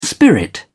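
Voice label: "spirit", British English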